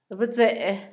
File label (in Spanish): brujo, Zapoteco, Asunción Ocotlan; Humano; INALI; Haspelmath